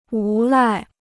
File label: "无赖", Chinese